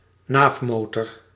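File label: "naafmotor", Dutch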